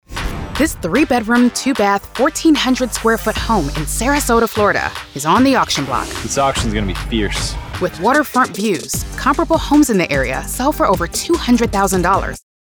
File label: Conversational Reality Engaging Real Estate TV Narration